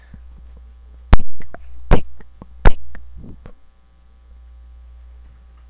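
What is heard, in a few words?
"pick", spoken word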